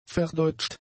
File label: "verdeutscht", German; adjectives